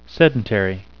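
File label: "sedentary", English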